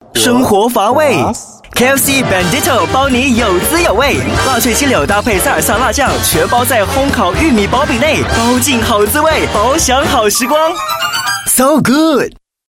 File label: Mandarin, Male; KFC Bandito